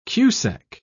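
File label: "cusec", British English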